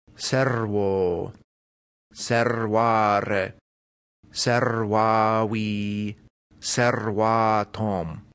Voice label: servo, Latin vocabulary